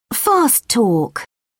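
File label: "fast talk", British English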